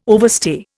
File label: "overstay", Indian